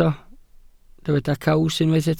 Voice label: "caws", welsh